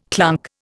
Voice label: "clunk", Indian